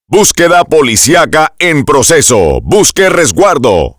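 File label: Police Search, Spanish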